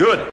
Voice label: "good", Voice